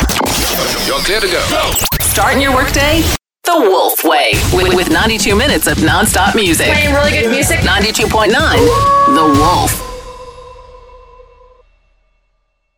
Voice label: Hot Country Radio Imaging

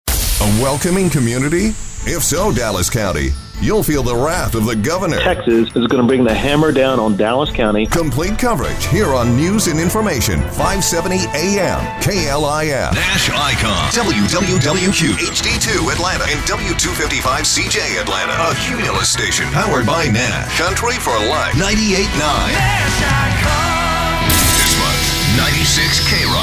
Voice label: radio imaging

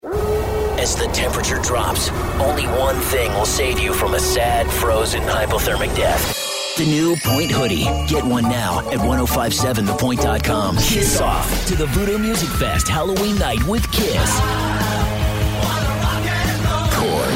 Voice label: radio; COPE

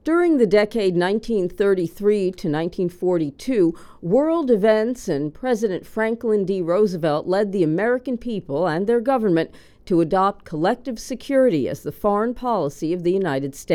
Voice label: Audiobook

